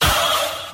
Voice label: "oh" hard attack; ooh ahh